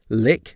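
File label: "lick", English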